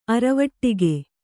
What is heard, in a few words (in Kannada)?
"ಅರವಟಿಗೆ", kannada